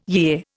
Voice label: "yea", Indian